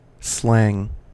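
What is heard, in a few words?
"slang" English, US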